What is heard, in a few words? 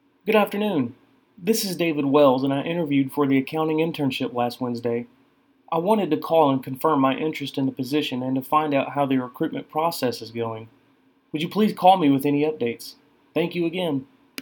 sample phone call